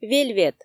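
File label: "вельвет", Komi, female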